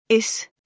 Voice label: "is", Anglo Saxon